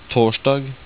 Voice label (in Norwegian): "torsdag" Norwegian